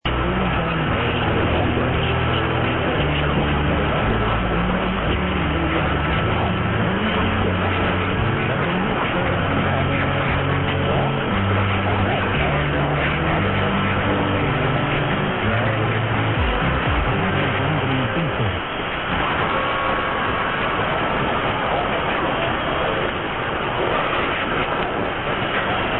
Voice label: 927 Radio Vlaanderen Info Belgium ID and off

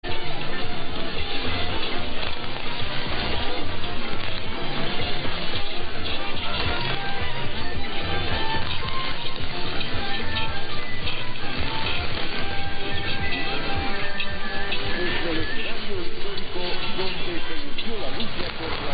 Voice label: Radio Cuba; 1000 Radio Granma

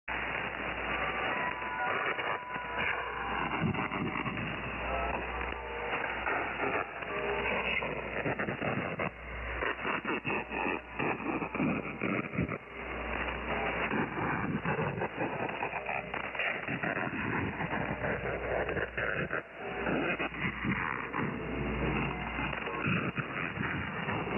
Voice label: Radio 0259 UTC; Radio Fana

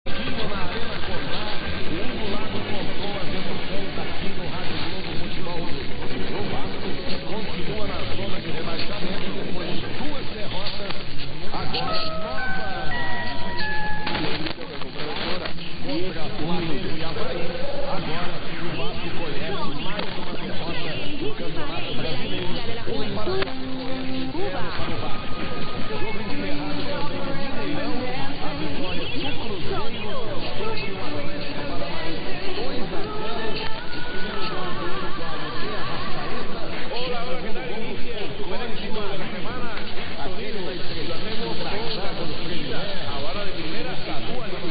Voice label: Radio Caribe Cuba tune over Radio Globo Brazil

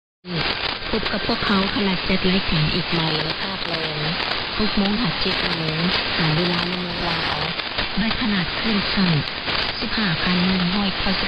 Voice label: Radio 9940 kHz; RadioFreeAsia

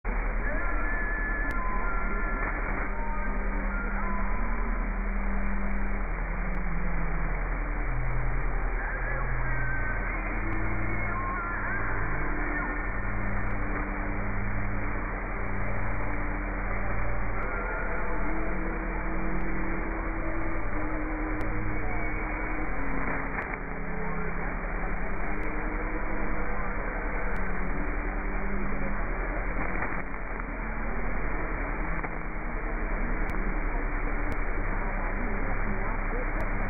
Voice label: Islamic Voice Radio Australia

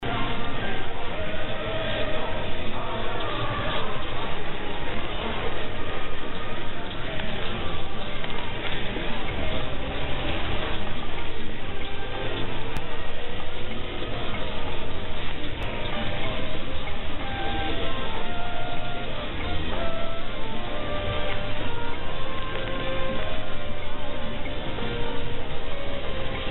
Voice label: Radio Cuba; 1000 Radio Granma

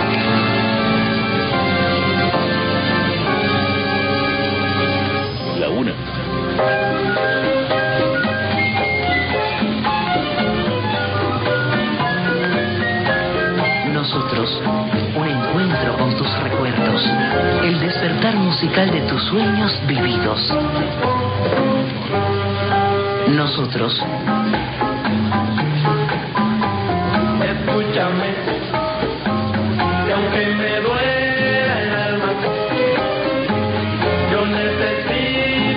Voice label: 600 Radio Rebelde Cuba; CMBA

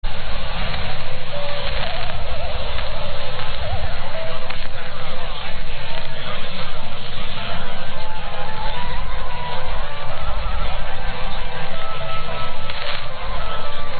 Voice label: Radio Cuba; 1040 Radio Mayabeque

